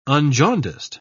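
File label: "unjaundiced", English, British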